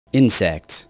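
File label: "insect", English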